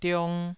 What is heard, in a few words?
"diong2", Taiwanese